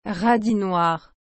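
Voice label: "radis noir", French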